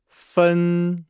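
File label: "fen", Chinese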